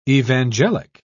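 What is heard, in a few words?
"evangelic", British English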